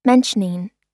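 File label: "mentioning", American English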